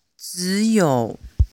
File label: chinese pronunciation zhi chi shi ri